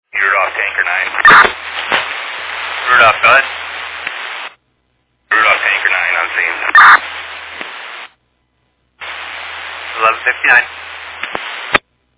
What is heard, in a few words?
Fire Dispatch